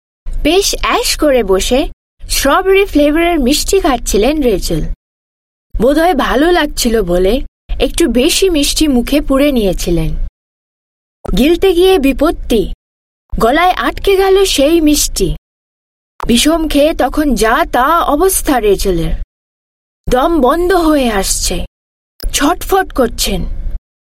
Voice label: Indian Narration Bengali, Female

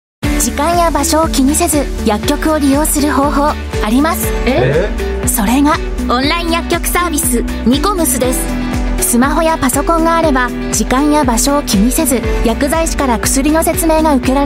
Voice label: Japanese; NiComs

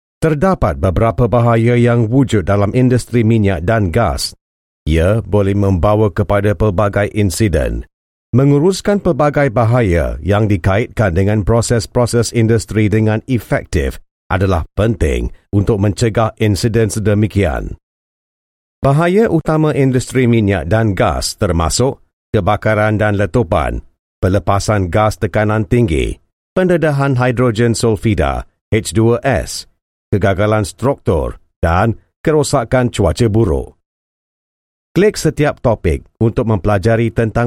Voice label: Malay e-learning

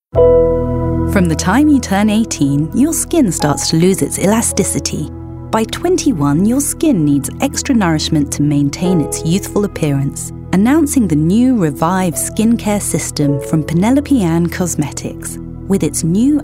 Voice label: UK English Skin Care

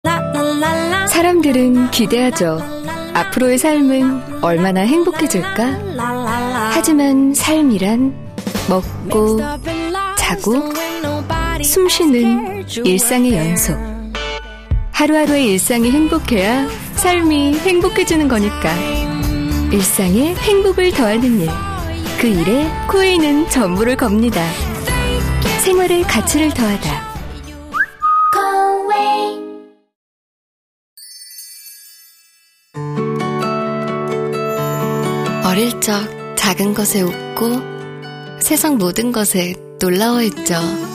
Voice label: Korean Voice Sample

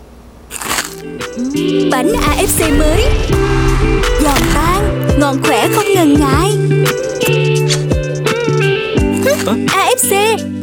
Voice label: Vietnamese Female

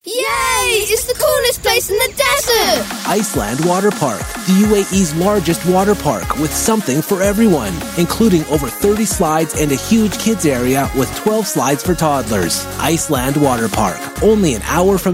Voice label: US English Family Adventure Upbeat, Male

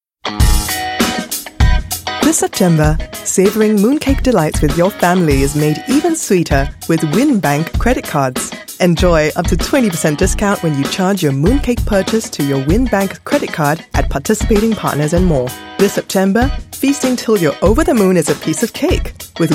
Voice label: Asian English; Mooncakes

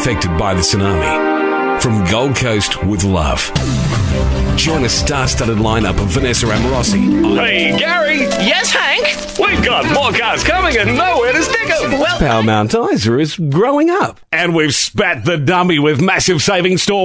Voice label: AU English, Australian, Male

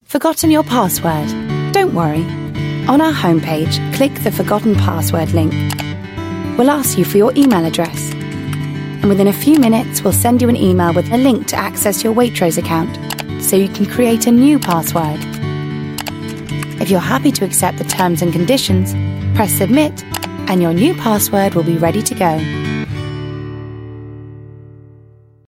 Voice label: UK English Forgotten your Password; Waitrose

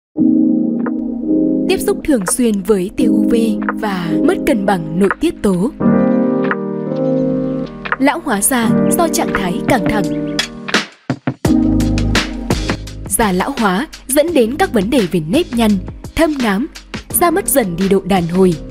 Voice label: North TVC Female, VIETNAMESE NORTH FEMALE VOICES; Neigen Evolution

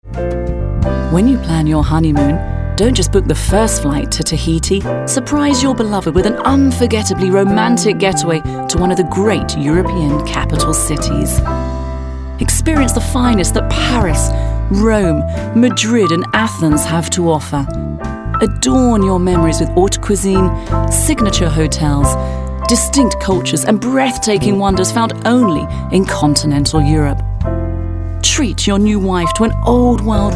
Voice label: UK English, Female; Honeymooners

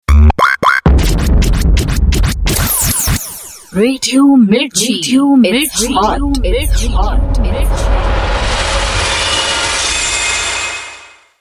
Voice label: Hindi, Female; India

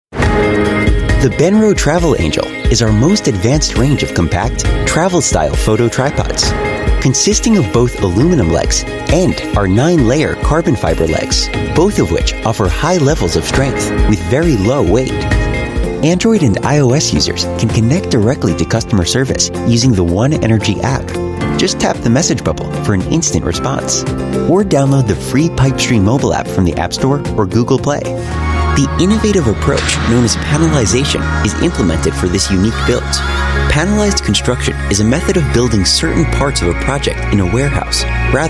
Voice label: US English Narration Voice Over

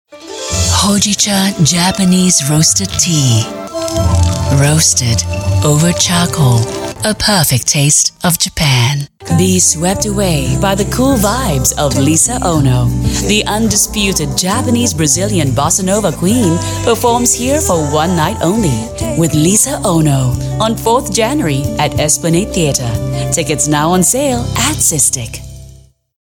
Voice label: Asian English Smooth

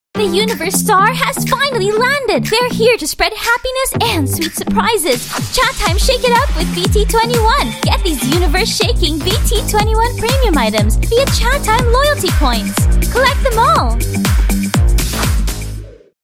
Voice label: Filipino English; ChatTime BT21

